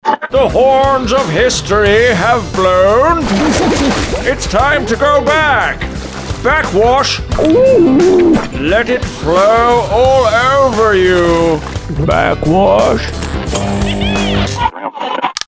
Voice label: english irish movie trailers